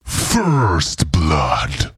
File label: First Blood, Announcer; liblast